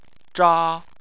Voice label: "zha1", Chinese